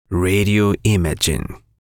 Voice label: Spanish Radio Imaging